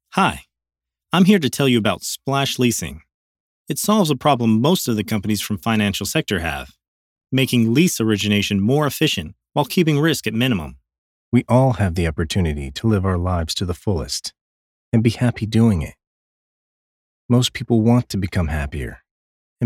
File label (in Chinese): 外籍英男, 干音, 抒情